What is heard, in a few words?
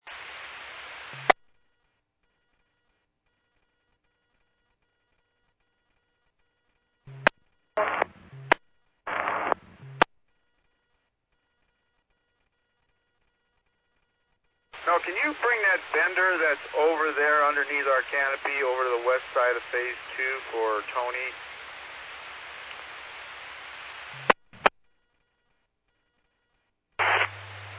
Medevac, scanner; NativeAirAmb